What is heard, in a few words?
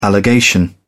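"allegation", English, British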